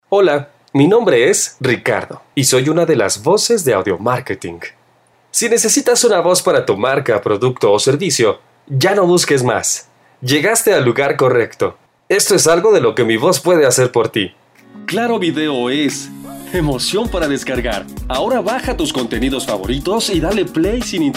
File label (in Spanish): Mexico; Locutores; Audiomarketing